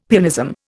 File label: "pianism", Indian; Dictionary